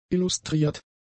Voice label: "illustriert", German; adjectives

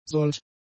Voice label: "solch", german; adjectives

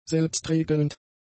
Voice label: "selbstregelnd", German; adjectives

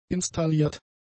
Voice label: "installiert", German; adjectives

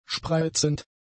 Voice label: "spreizend", German; adjectives

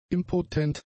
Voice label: "impotent", German; adjectives

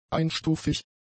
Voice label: "einstufig", german; adjectives

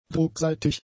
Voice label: "druckseitig", German; adjectives

